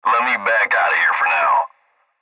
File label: Cb Trucker Radio; Radio Voice; Blastwave